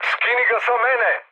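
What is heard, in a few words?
Serbian aircraft damaged mood med, speech; War Thunder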